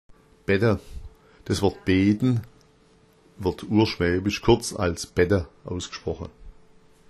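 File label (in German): "betta", schwäbisch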